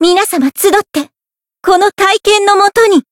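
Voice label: Voice limit break, Japanese; sincere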